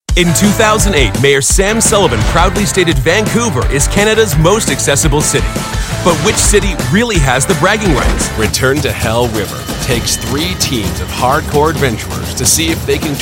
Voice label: Narration Television Corporate